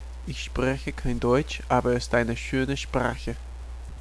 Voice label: German